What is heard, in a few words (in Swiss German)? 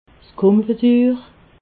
"konfitur", alsace